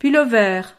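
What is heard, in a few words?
"pullover", French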